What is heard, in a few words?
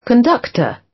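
"conductor", British; Flora and fauna, agriculture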